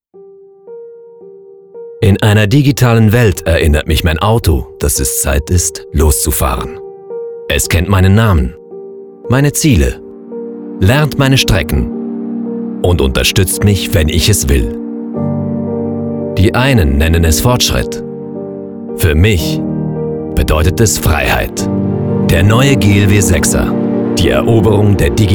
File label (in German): Werbung; BMW